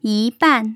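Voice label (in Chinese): "yībàn", Mandarin; tone changes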